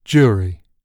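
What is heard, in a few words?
"jury", British English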